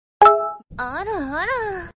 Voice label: "ara ara" anime; kurumi

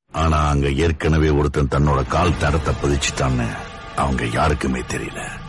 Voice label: tamil

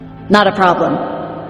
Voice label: funny message tone not a problem; pubg

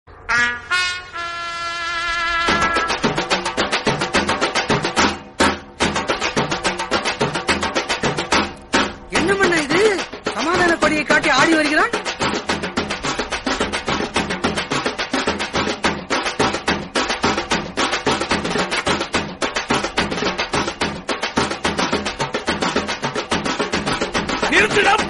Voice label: comedy, dialogue, tamil; vadivelu; 23 pulikesi

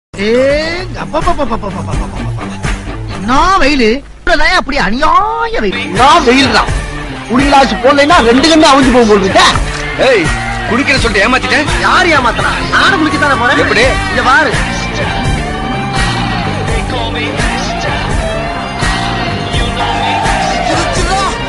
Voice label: tamil comedy dialogue; vadivelu; enna venum

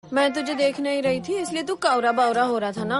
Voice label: dialogue Hindi; pushpa

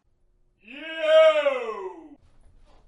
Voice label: "yo" sound notification

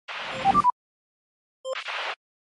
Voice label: radio notification

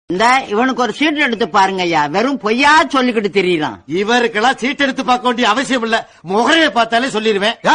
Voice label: tamil comedy

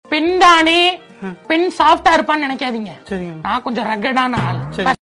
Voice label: funny dialogue

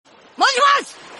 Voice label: moshi moshi sound notification; one piece

